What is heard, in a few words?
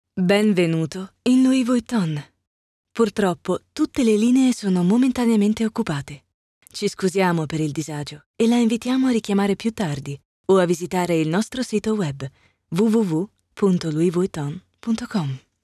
IVR; Vuitton